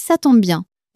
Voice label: "ca tombe bien", French